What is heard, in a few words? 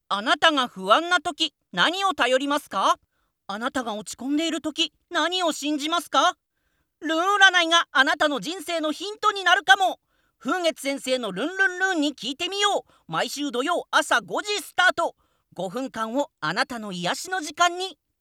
male